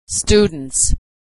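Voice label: "students", American English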